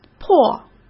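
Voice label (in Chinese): "破", Mandarin Chinese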